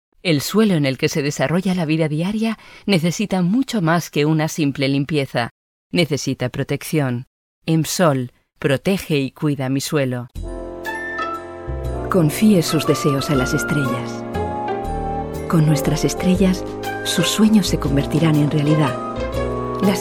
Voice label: es mix, spanische sprecherin